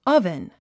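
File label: "oven", English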